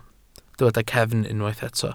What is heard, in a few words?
"cefn", Welsh